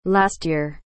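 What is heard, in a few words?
"last year", English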